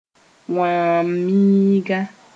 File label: "wãam-miiga", Mooré; red monkey